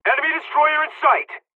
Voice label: dialog, hungarian; dialogs; midway

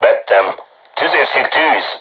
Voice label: voice message art shoot, hungarian; crew tanks

